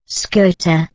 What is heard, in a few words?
"skeuta", Proto Germanic